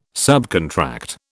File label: "subcontract", British